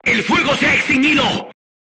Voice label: voice message fire extinguished, crew tanks spanish